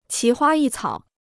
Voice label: "奇花异草", Chinese

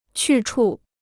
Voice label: "去处", Chinese

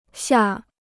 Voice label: "下", Chinese

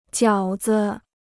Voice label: "饺子", Chinese

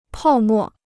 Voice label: "泡沫", Chinese

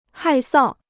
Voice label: "害臊", Chinese

